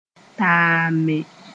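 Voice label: "taame", Mooré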